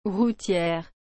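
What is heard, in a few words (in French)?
"routiere", French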